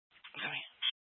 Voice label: Mesa PD Dispatch; Fiesta